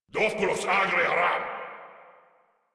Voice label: Attack, Grineer Male; Warframe